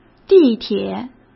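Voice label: "地铁", Mandarin Chinese; chinese flashcards; hsk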